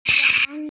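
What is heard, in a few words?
"park", Mandarin Chinese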